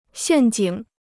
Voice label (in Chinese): "陷阱", Chinese